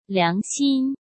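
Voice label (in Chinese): "良心", Mandarin Chinese; hsk; chinese-flashcards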